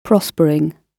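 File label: "prospering", British English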